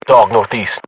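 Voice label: uk threat dog north east, battle chatter, English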